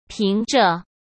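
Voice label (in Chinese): "凭着", Mandarin Chinese; chinese-flashcards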